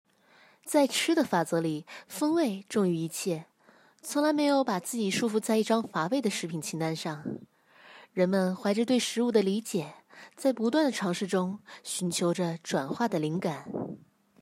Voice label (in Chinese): 少年音; 在吃的法则里